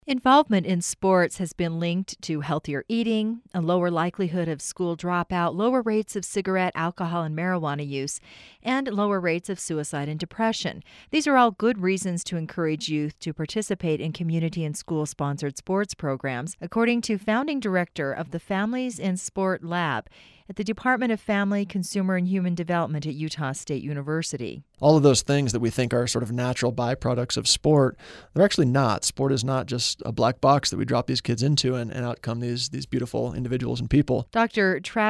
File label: narration